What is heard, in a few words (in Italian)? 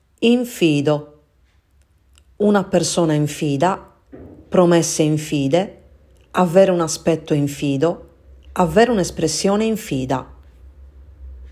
come si pronuncia infido